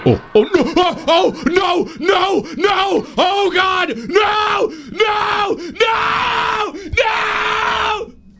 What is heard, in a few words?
noooo nooo noooooo